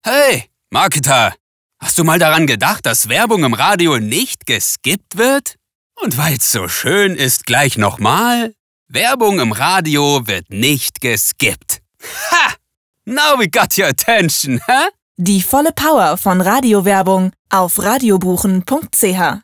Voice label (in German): SPOT Gattungsmarketing Marketer Straight